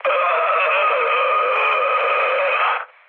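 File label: Serbian damaged pilot mood med, speech; War Thunder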